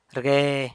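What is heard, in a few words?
"rgue", San Lucas Quiaviní Zapotec; cusses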